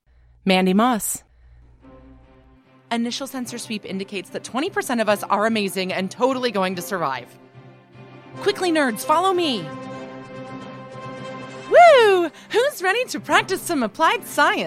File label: Animation